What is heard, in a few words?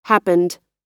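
"happened", American English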